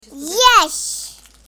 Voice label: feedback child says yes; effect; success